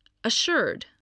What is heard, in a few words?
"assured", english